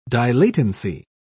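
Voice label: "dilatancy", British English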